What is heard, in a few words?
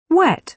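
"wet", British English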